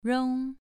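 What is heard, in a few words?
"rong" Mandarin Chinese, second tone, pronunciation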